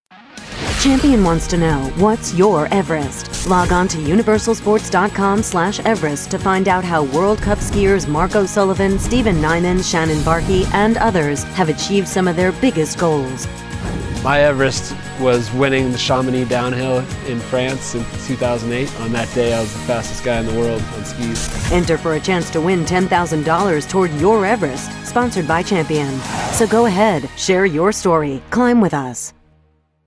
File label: Serious